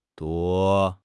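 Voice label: "duo1", Chinese; HSK1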